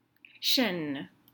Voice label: "Chene", French